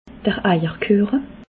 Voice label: "eier kueche", alsace

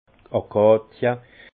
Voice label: "akacie", Alsace; vin; acacia

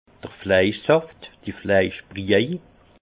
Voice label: "fleischsaft", Alsace; vin; jus de viande

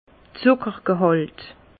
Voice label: "zuckergehalt", Bas Rhin; vin; teneur en sucre

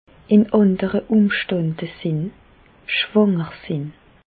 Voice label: "schwanger", Bas Rhin; medecine; enceinte être

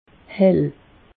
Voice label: "hell", alsace; meteo

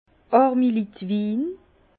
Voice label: "armilittwin", Bas Rhin; vin

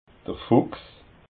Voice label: "fuchs", alsace

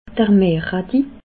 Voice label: "meerretti", alsace

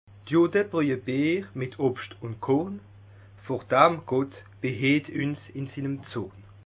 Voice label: "judde", Bas Rhin; les juifs font de la bière avec des fruits et du blé, que Dieu dans sa colère nous en prés

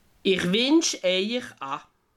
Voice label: "winsch", alsace

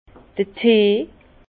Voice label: "tee", Bas Rhin; infusion; vin

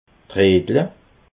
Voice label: "reetle", alsace

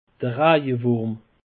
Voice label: "rajewurm", alsace; peche

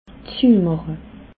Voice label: "zuemache", alsace

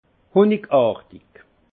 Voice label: "honigartig", alsace; vin; miel

